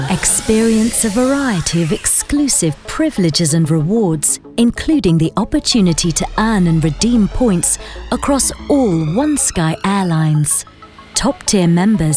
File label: ENG UK, voice